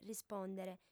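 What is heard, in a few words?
"rispòndere", female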